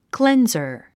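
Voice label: "cleanser", English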